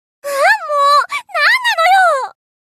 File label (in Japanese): エモーション（怒る）; 「あーもう、何なのよー！」